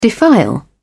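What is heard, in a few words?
"defile", British English, dictionary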